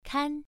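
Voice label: "kan", Mandarin Chinese, first tone, pronunciation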